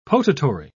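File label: "potatory", British English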